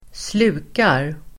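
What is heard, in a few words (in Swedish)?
"slukar", Swedish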